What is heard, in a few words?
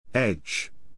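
"edge", English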